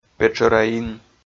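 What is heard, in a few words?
"петшӧраин", Komi, male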